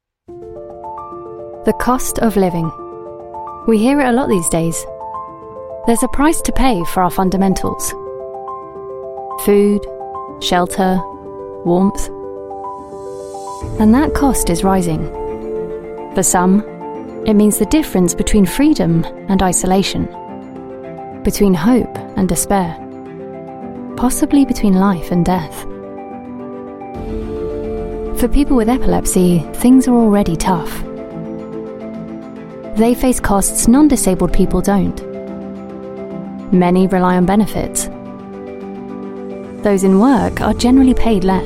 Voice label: UK English; Action for Epilepsy; The Cost of Living

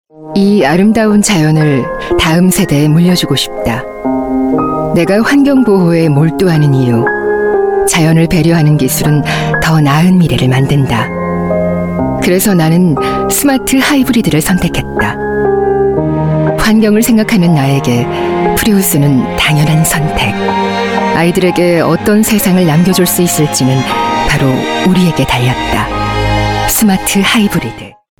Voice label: Korean

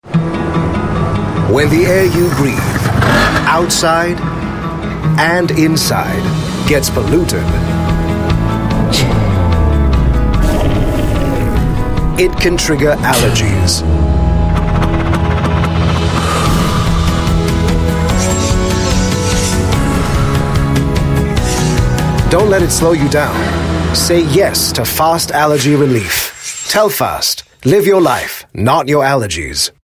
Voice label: Asian English; Telfast

